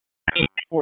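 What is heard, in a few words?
Police Dispatch, p25; wake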